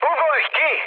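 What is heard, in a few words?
Hungarian bailout mood low, speech; War Thunder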